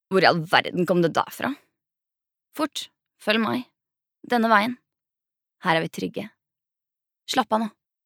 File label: no dub character